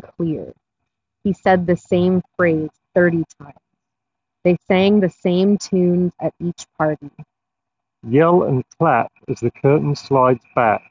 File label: Street microphone sample; GN Jabra Elite 7 Active